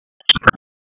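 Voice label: Raleigh Police Special Operations Tactical, p25; wake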